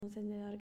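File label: "Encendedor", Spanish, female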